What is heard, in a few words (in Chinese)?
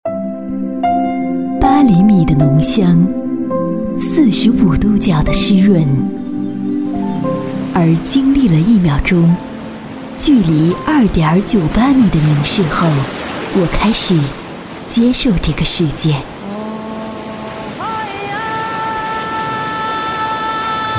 广告 公益 公益广告